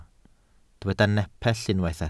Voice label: "nepell", welsh